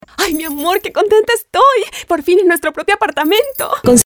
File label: Acting sincere conversational; Magnum